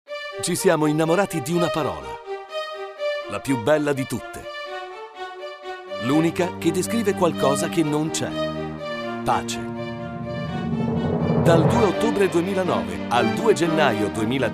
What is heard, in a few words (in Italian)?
Spot radio; marcia mondiale